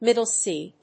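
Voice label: "middle C", English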